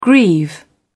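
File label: "grieve", British English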